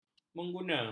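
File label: "menggunakan", Malay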